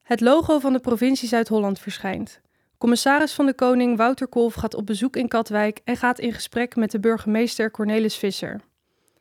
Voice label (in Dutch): gesprek voice-over; In gesprek met burgemeester Katwijk - Provincie Zuid-Holland; De commissaris van de Koning bezoekt de komende tijd alle 50 gemeenten van Zuid-Holland.